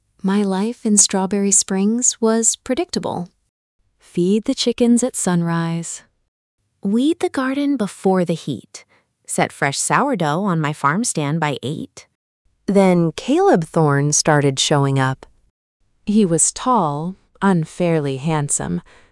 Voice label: full narration